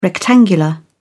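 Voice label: "rectangular", British English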